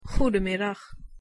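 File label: "goedemiddag", Dutch; język niderlandzki podstawowe zwroty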